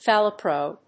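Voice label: "phalarope", English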